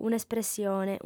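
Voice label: "un'espressione", Sardinian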